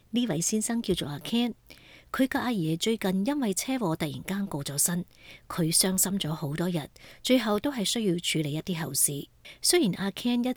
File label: Cantonese